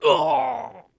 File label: Male